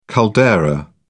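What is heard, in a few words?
"caldera", English, British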